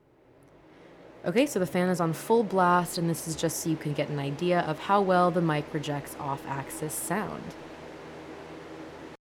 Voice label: cardioid microphone sample; JLab Talk PRO

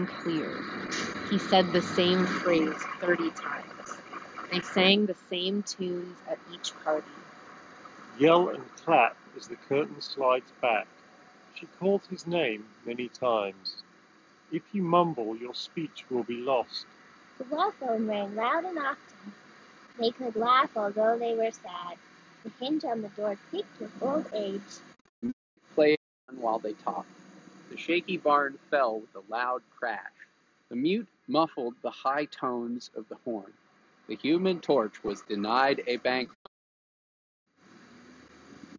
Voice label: Street microphone sample; Apple AirPods Max

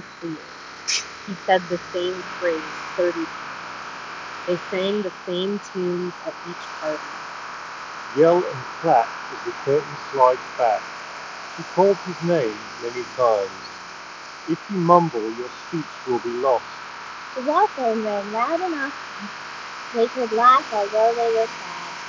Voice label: Street microphone sample; JBL Tune 125; jbl tune 125tws

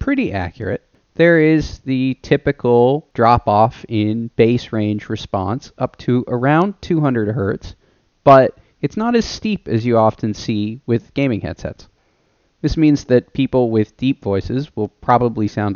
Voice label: Audeze Penrose mic sample

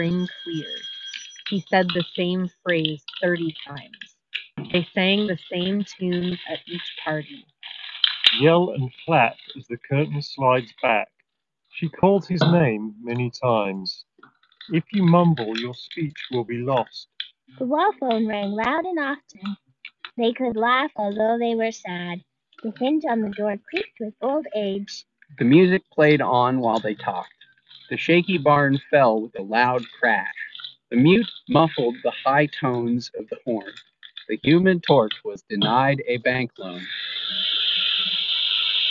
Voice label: Office microphone sample; Tozo NC2

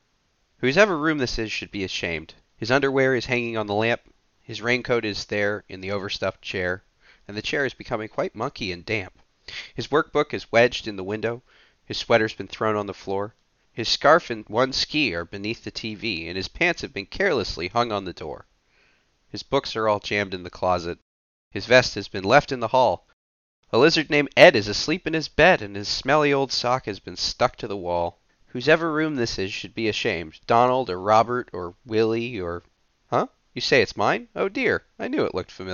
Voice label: Arctis 1 Wireless Mic Sample

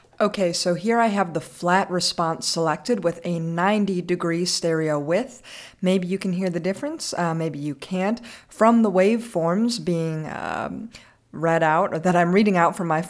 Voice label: Shure MV88 flat 90 stereo

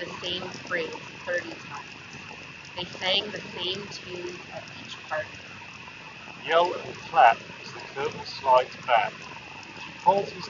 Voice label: Wind microphone sample; Jabra Elite 4

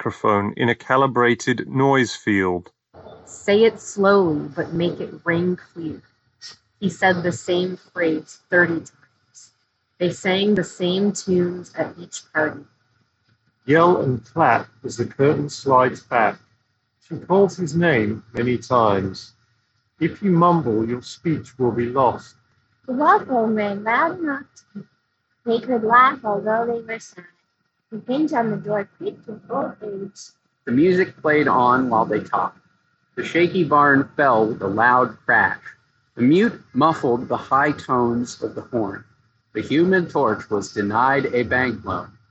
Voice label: buds mic street; google pixel pro 2

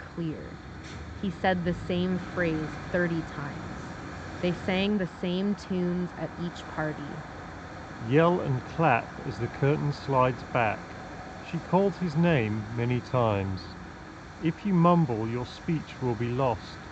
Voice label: Meze 99 Classics Street microphone sample

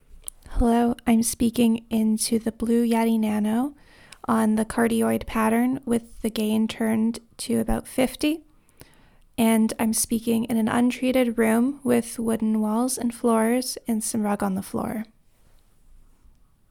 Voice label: voice cardioid; blue yeti nano

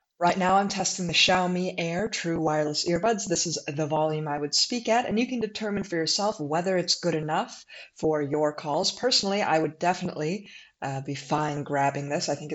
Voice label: microphone demo; Xiaomi Air TWS; Xiaomi Mi True Wireless

